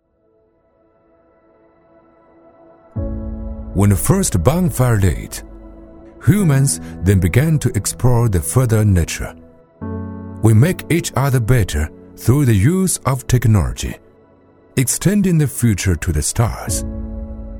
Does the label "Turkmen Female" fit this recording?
No